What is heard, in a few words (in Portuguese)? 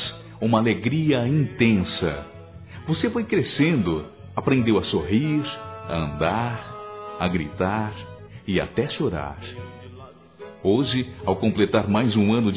Aniversário 15 anos Masculino, Telemensagens; Telemensagens 2017; Aniversários